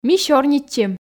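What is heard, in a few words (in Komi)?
"ми сёрнитчим", Komi, female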